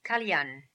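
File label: "Kalyan", pronunciations; Fourth Way; Beelzebub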